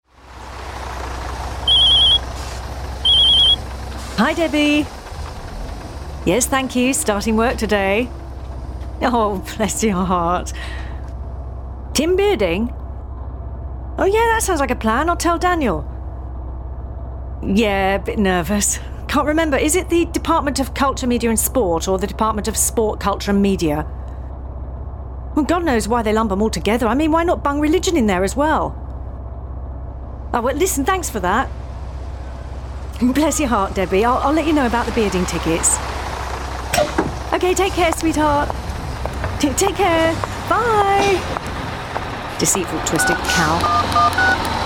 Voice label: Audiodrama; Power Ballads